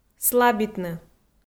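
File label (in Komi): "слабитны", Komi, female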